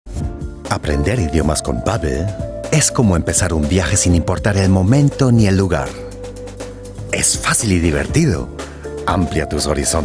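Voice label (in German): Spanish, Freundlich; ESP; Fremdtake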